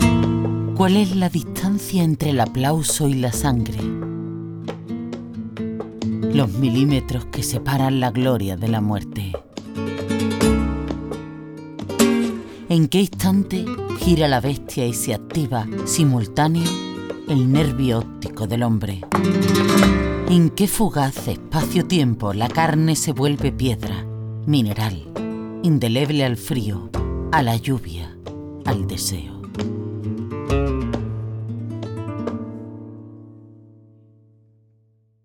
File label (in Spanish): Andaluz Voz femenina media